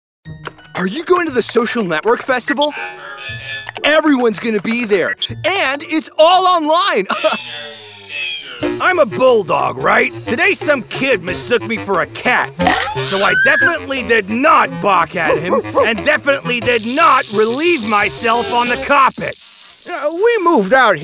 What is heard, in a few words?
animation